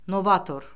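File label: "novator", Azerbaijani; Orfoqrafiya Lüğəti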